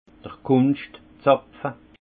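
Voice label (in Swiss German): "kunscht zapfe", Haut Rhin; vin; bouchon compensé capsule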